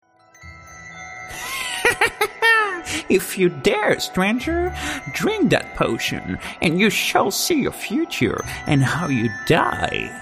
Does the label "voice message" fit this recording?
No